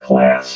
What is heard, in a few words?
"class", robot vox